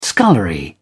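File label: "scullery", English, British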